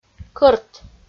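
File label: "кӧрт" Komi, female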